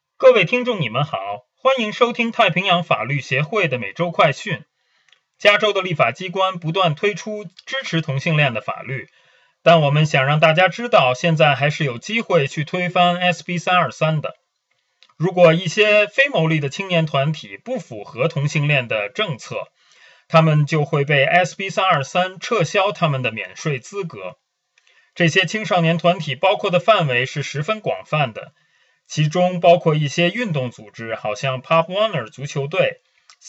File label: mandarin